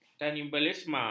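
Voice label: "kanibalisme", Malay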